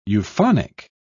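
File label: "euphonic", British English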